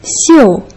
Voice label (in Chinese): "xiu4", Putonghua